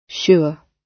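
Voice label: "sure", British English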